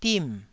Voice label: "dim4", taiwanese syllable